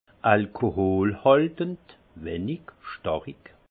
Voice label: "alkoholhaltend", alsace; vin; allcoolisé peu fortement

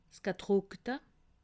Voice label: "gedruckte", alsace

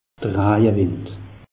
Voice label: "rajewind", alsace; meteo

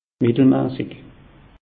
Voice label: "mittelmassig", alsace; meteo

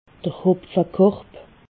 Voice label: "hopfekorb", alsace

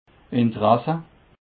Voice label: "interesse", alsace

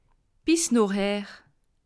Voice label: "bis nochher", alsace

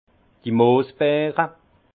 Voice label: "moosbeere", alsace; vin; canneberge

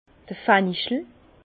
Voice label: "fenchel", Bas Rhin; vin; fenouil

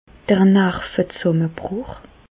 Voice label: "narvezsammebruch", Bas Rhin; medecine; dépression

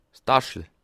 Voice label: "dachel", alsace

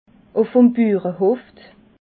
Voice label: "burahof", alsace

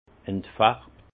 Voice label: "entfarbt", alsace; vin; décoloré

